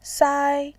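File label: "sai", Mandarin Chinese, first tone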